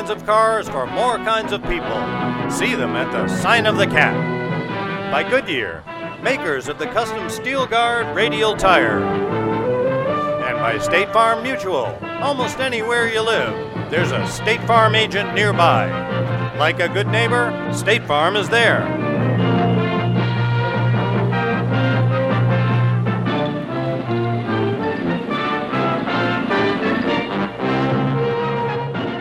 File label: Sports Intro, voiceover; Retro ABC Wide World of Sports Intro